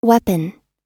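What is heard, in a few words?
"weapon", English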